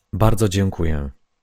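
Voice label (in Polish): "bardzo dziękuję", Polish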